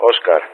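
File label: "Oscar", spoken; Ka-50; Alphabet; Oscar-continue; Speech; DCS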